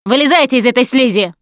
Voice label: gooed by spitter, russian; Producer

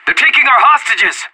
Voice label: hostages being taken, player vo anarchist; Counter-Strike Global Offensive

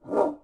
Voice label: Gasmask Fort Vox; Beyond the Sword; Units

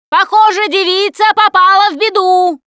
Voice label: ledge hang start, russian; Producer

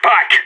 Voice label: radio bot fallback

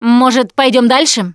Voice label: Move On, Survivor Voice; russian; Producer

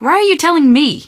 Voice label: answer, female; Garry's Mod; hl2

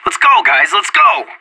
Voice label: pep talk; Counter-Strike Global Offensive

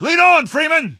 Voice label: "lead on", male; npc; Garry's Mod; hl2

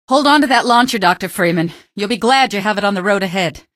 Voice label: citizen, male; Garry's Mod; hl2; odessa

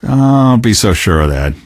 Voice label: answer, male; Garry's Mod; hl2

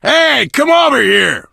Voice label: throw items, survivor voice biker; finale l4d1; Left 4 Dead 2

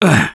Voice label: Hurt Minor, russian; Gambler

